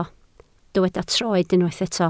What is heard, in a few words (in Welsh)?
"troed", Welsh